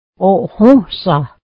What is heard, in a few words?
eggs, mohawk